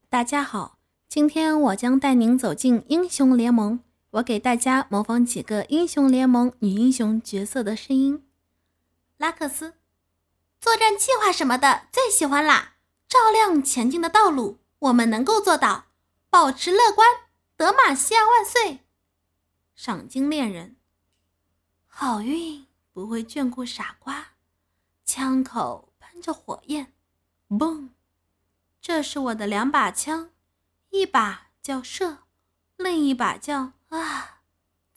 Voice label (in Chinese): 英雄联盟女英雄角色模仿音